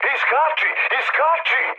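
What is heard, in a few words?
Serbian bailout mood high, speech; War Thunder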